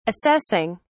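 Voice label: "assessing", British English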